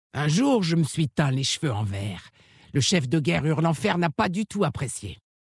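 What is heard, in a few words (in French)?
French Femme Blague; Orc Maghar; Cheveux vert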